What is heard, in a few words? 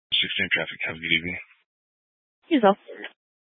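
Sheriff Dispatch, Scanner audio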